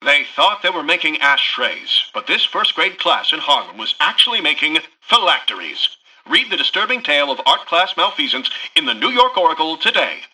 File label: Newscaster headline